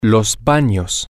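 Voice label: the bathrooms, Spanish, male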